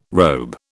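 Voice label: "robe", British English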